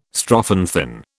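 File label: "strophanthin", British English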